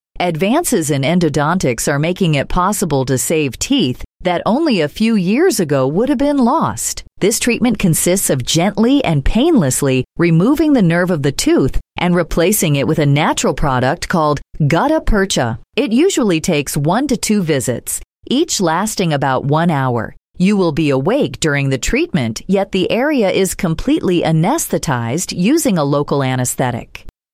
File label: Female